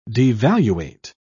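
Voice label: "devaluate", British English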